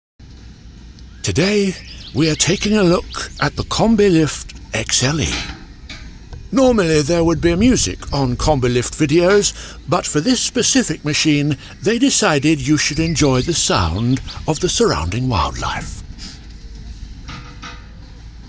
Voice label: Impressionist, voice actor; David Attenborough; COMBI LIFT